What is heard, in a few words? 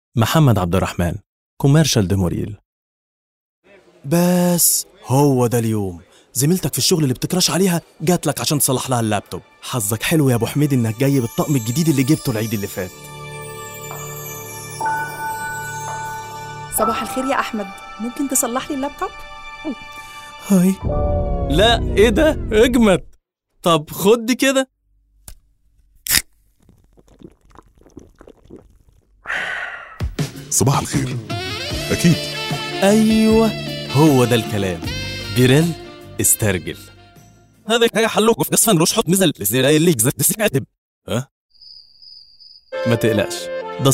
Commercial Egyptian Arabic